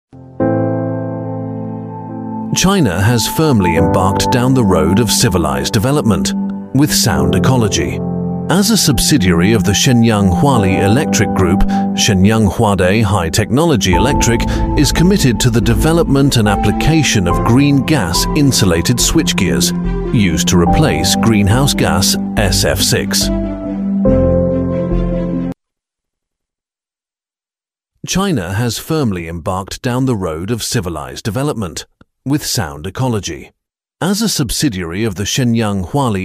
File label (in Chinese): 英式男声 专题解说